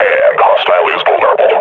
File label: player is hurt, grunt; Half Life Model Pack